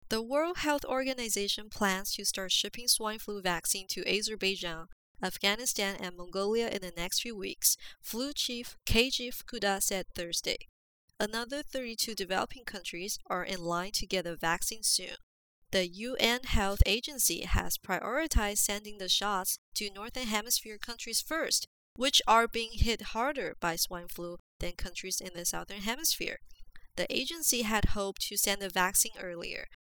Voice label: Asian Accent; foreign languages

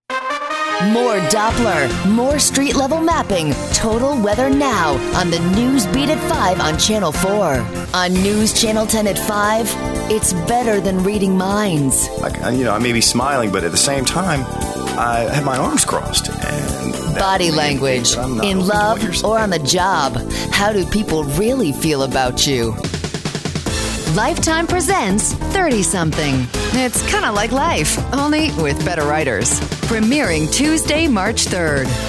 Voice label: promo, female voice talent

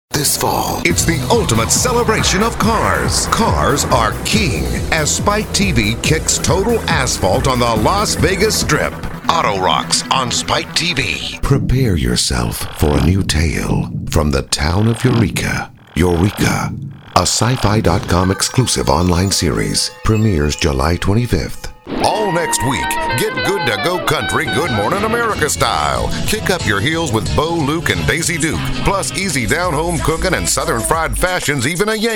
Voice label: promo, male voice talent

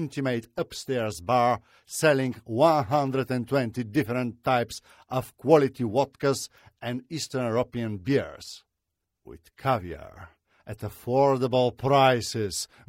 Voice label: Russian Accent, Voice Overs; foreign accent voiceovers

